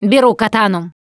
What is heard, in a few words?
melee weapons, russian, Survivor Voice Producer